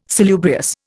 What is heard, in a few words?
"salubrious", Indian